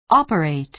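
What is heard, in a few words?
"operate", American English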